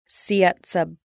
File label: "communications", Lushootseed